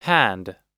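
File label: "hand", US English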